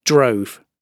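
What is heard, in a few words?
"drove", British English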